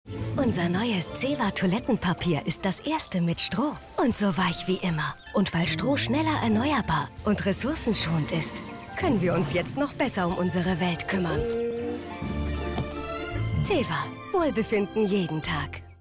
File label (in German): TV Spot; Zewa